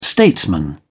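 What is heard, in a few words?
"statesman", British English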